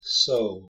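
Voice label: "so", English pronunciation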